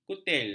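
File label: "kutil", Malay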